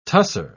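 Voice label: "tusser", English, British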